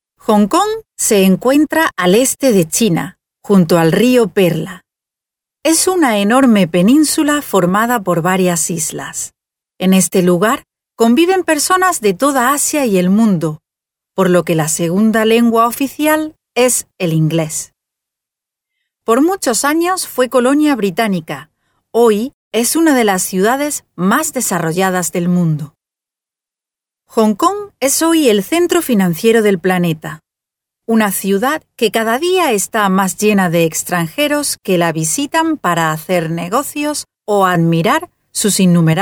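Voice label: Spanish female corporate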